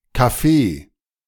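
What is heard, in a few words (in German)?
Kaffee, österreichisches Deutsch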